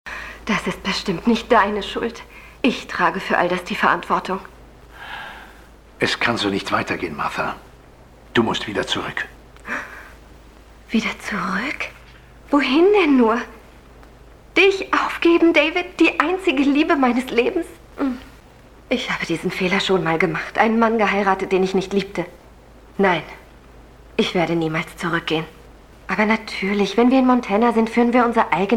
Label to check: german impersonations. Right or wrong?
Right